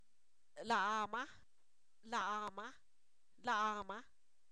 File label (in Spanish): ella, Zapoteco, san miguel albarradas; pronombres libres